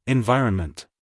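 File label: "environment", American English, male; everyone-can-use-english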